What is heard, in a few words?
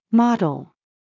"B40", model US; dentaleikaiwa